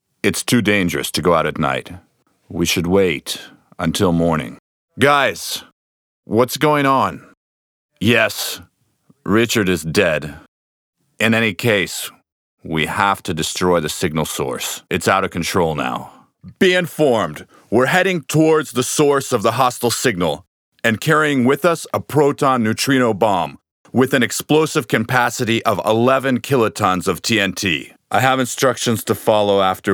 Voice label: movie US